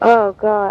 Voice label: "oh god", female; Voice